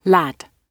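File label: "lad", British English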